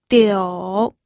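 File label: "diu", pinyin, third tone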